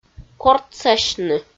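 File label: "кортсасьны" Komi, female; audio dict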